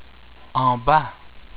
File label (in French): "En bas", French